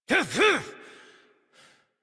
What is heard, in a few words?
Horse Stop Adult; Warframe; Duviri